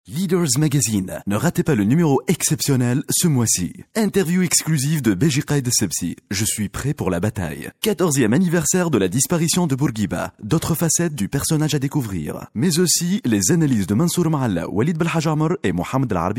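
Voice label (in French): Tunisian French, male, narration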